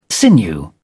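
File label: "sinew", British English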